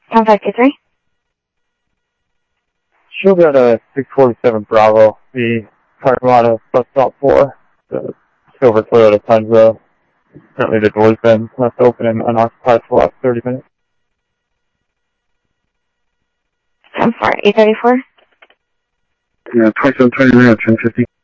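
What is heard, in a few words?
Sheriff Lake Patrol, scanner audio trunk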